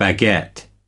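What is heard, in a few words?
"baguette", US English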